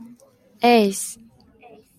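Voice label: "ace", Japanese, female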